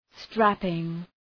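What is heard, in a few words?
"strapping", English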